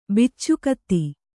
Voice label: "ಬಿಚ್ಚುಗತ್ತಿ", Kannada

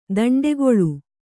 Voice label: "ದಂಡೆಗೊಳ್ಳು", Kannada

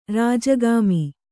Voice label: "ರಾಜಗಾಮಿ", Kannada; rāja gāmi; noun

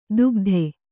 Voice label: "ದುಗದೆ", Kannada

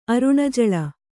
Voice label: "ಅರುಣಜಳ", Kannada

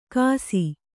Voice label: "ಘಾಸಿ", Kannada

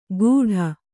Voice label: "ಗೂಢ", Kannada

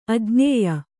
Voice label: "ಅಜ್ಞೇಯ", Kannada; ajñēya; not knowable; incomprehensible

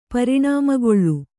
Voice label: "ಪರಿಣಾಮಗೊಳ್ಳು", Kannada; pariṇāmagoḷḷu

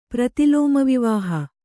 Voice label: "ಪ್ರತಿಲೋಮವಿವಾಹ", Kannada

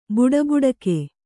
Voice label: "ಬಡಬಡಿಕೆ", Kannada

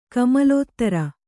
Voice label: "ಕಮಲೋದರ", Kannada

